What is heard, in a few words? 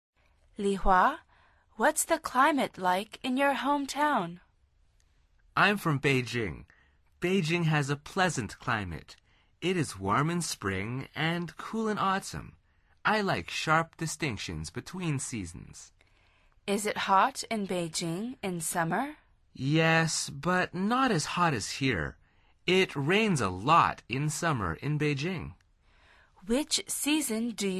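dialog, Conversación en Ingles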